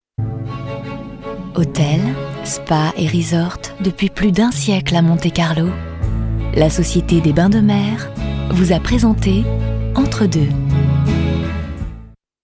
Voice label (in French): Voix off Billboard; TMC les bains de mer